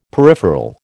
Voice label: "peripheral", American